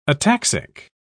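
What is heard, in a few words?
"ataxic", English, uk British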